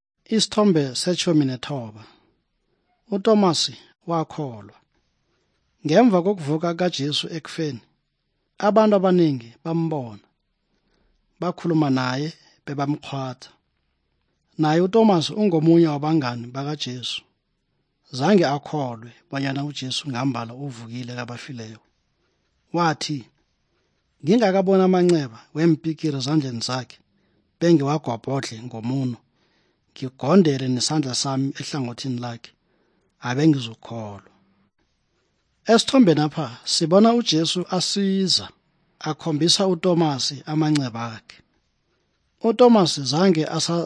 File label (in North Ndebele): good news ndebele southern, drama